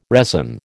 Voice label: "resin", American

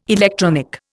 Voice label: "electronic", Indian

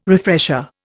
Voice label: "refresher", Indian

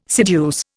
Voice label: "seduce", Indian

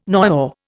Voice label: "noyau", Indian

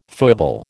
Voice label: "foible", American

